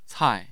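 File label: "cai", Chinese, fourth tone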